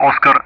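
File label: Speech Sound Russian Common Player Alphabet; DCS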